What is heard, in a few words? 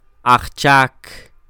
"ajchak", Quiché; trabajador; worker; INALI; UTexas; CHQETA